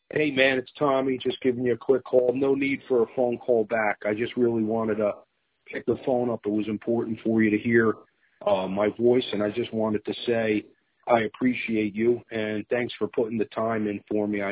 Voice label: voicemail